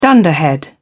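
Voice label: "dunderhead", British English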